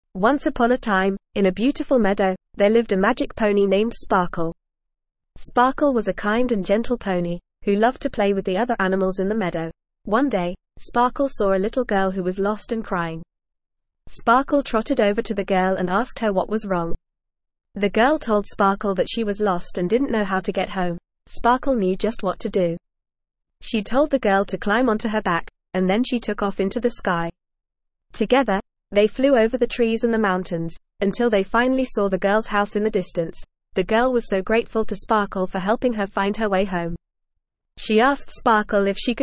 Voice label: ESL Short Stories for Kids NORMAL reading; The Magic Pony